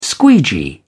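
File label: "squeegee", British English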